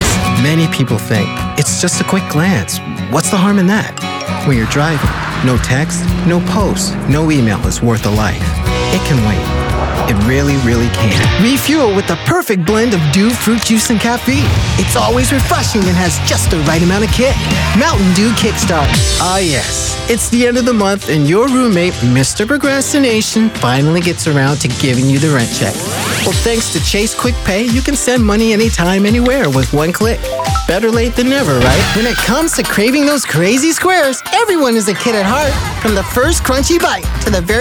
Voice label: Commercials